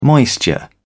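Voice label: "moisture", British English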